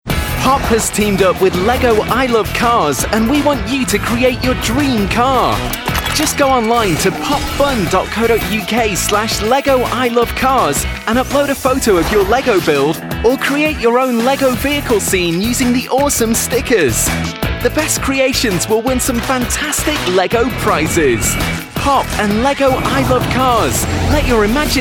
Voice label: Ecstatic